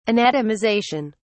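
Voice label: "anatomization", English, British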